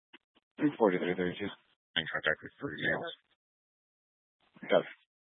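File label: Sheriff Dispatch, Scanner audio recording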